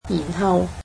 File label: Then, cantonese